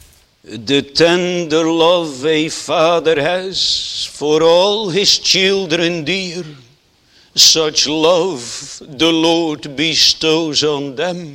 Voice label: Sermon; 1 john 3 1 3